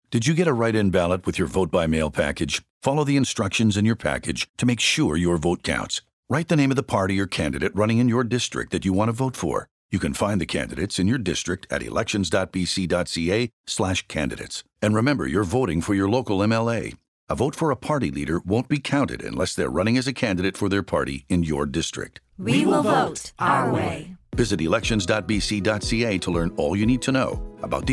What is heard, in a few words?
Radio Ad